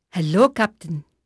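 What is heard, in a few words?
Dutch female, voice; Pirates Of The Caribbean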